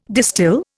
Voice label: "distil", Indian